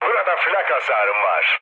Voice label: damaged aa, mood low, speech tur; War Thunder